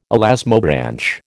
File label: "elasmobranch", American